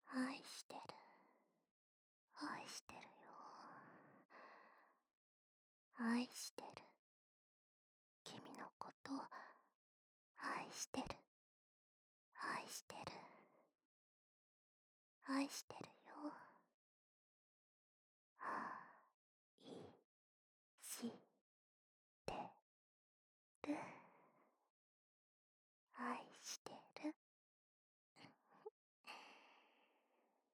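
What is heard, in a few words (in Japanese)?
右耳 愛してる, 囁き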